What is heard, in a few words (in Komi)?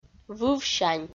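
"вывсянь", Komi-Zyrian, female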